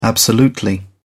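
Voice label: "absolutely", British English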